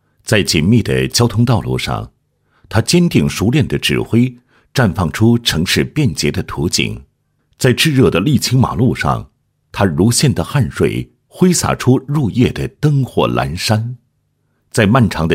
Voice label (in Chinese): 男, 人物专题